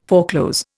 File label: "foreclose", Indian